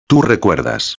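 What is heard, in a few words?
"recuerdas", Spanish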